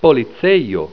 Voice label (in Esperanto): "policejo", Esperanto